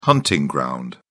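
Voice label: "hunting ground", English, British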